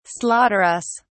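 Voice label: "slaughterous", British English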